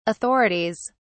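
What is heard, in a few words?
"authorities", British English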